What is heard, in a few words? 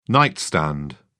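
"nightstand", English, British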